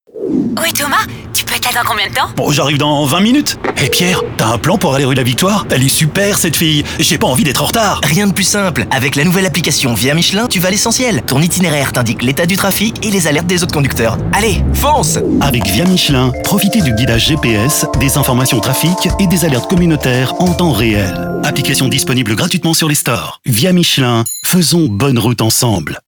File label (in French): Voix Off; VIA MICHELIN; Role Thomas